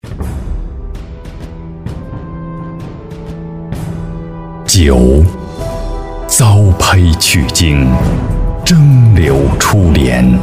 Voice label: 男 广告 白酒广告 大气 浑厚

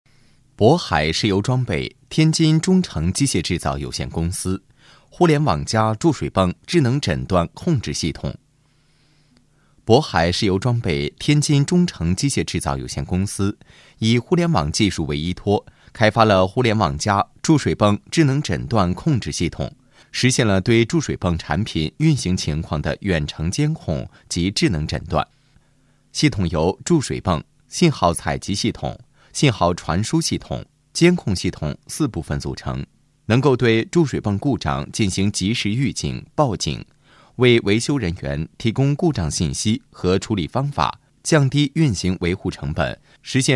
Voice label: 男 课件 机械产品解说

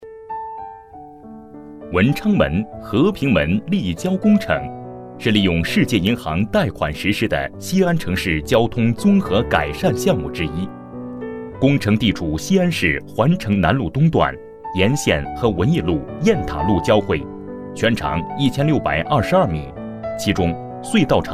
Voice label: 男, 项目介绍; 文昌门立交

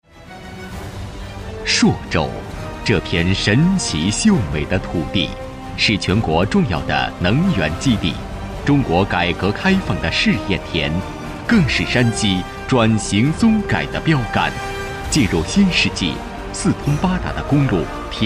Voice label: 男 专题 政府专题; 政府专题朔州发展

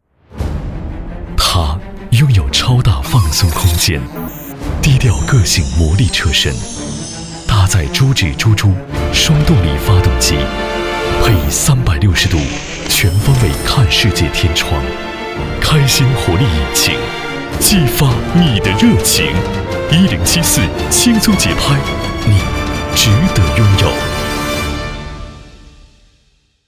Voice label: 男 片花 电台

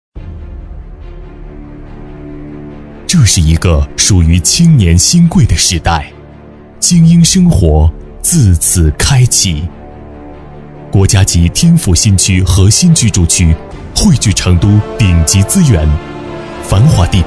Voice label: 男 广告; 格林城

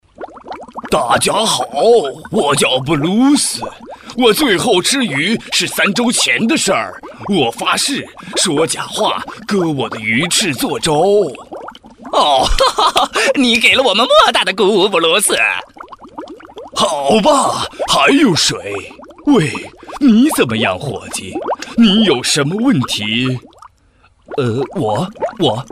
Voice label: 男 动漫 多角色动画配音

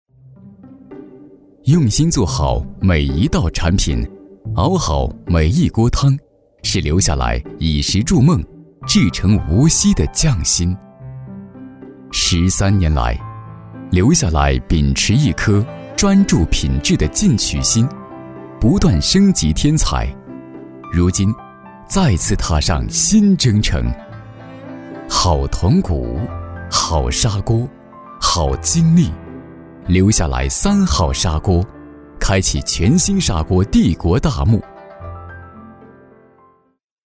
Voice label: 男3 专题; 三好砂锅

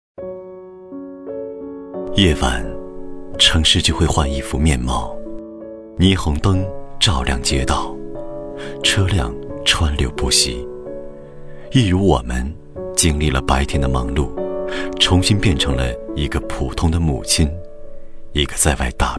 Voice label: 男 旁白 微电影; 国美

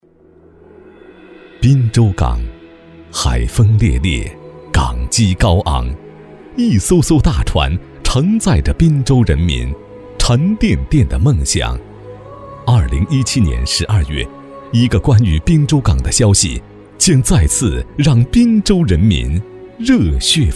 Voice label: 男 专题 宣传片; 滨州港

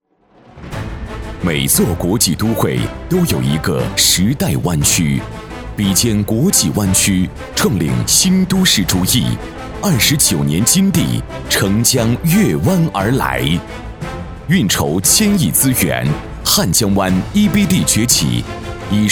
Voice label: 男26 专题 大气品质; 地产; 金地地产

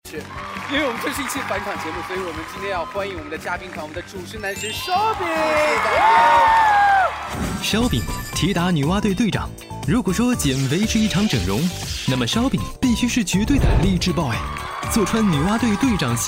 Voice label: 男 片花; 腾讯《哎呦辣么美》

